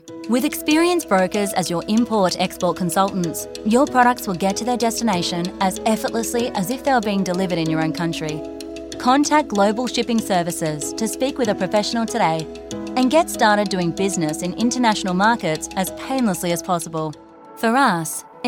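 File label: female, Australian English, corporate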